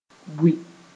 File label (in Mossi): "bui", moore